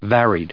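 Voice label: "varied", English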